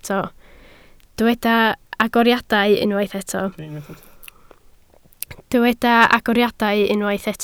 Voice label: "agoriadau", Welsh